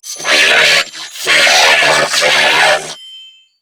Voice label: Attack Grineer Female; New War; Narmer